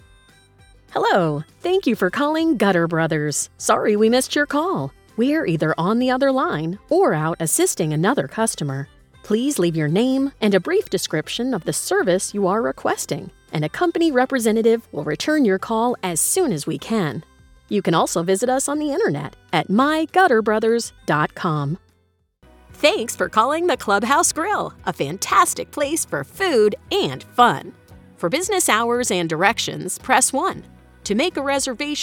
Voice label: IVR